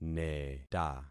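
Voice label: "neta", concatenated syllables; Affixing experiment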